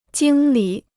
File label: "经理", Chinese